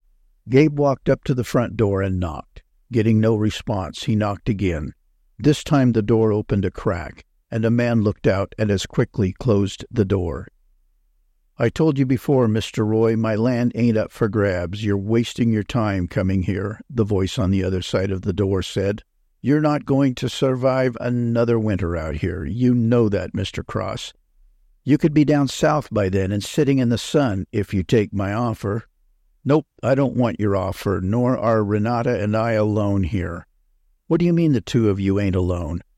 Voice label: Audio Book; Willow Gate Justice

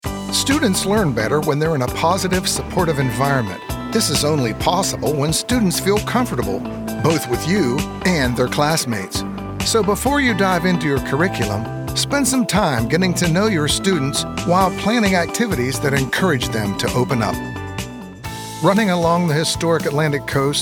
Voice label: Narration

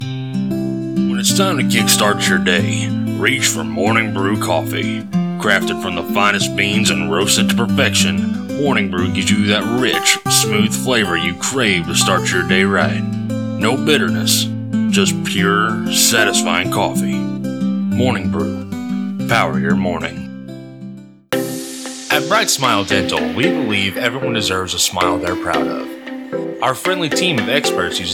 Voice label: Commercial

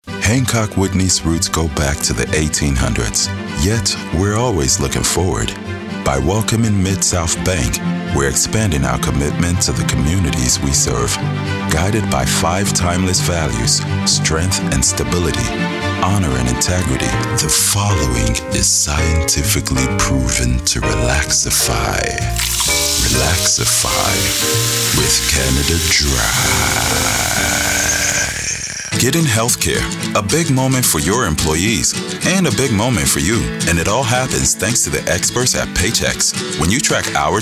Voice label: Commercial

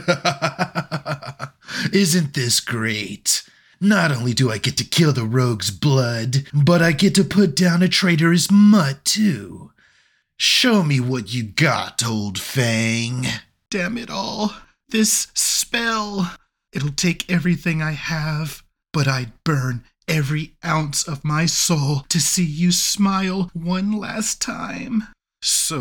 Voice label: Animation Character

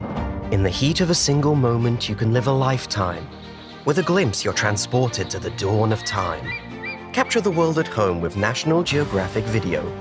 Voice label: Commercial

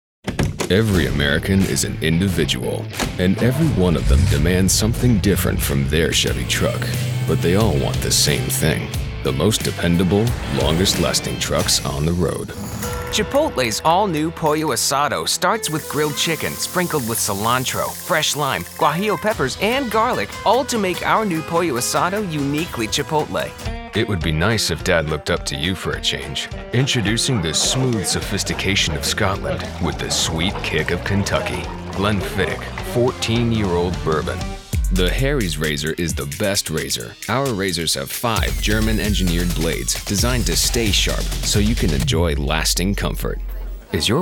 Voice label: Commercial

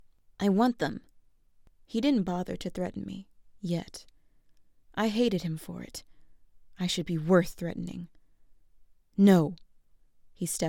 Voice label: Narration; The Song of Achilles

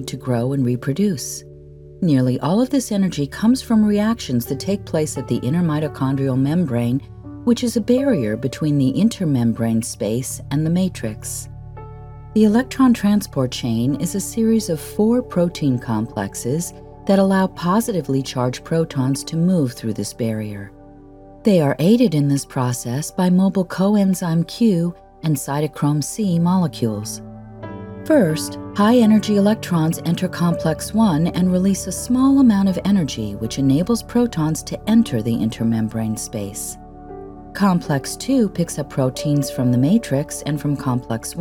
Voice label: with music; Electrons

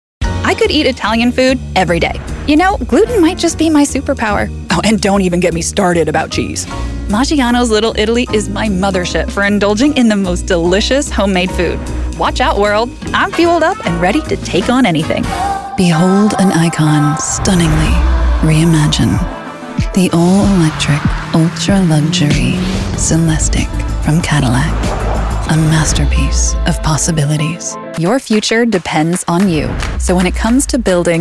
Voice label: American

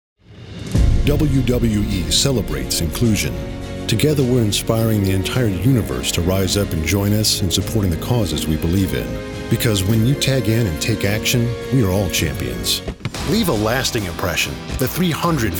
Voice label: commercial

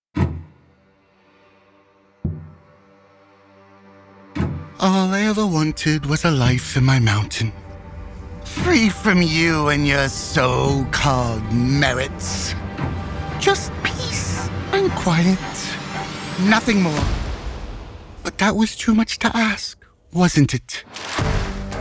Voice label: Horror; Unpunished

